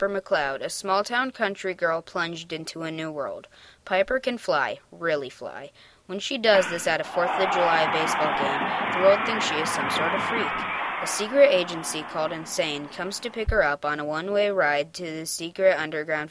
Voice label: book chatter; library media center